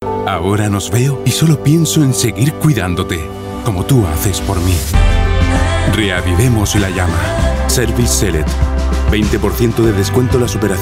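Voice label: Male European Spanish; Mercedes-Benz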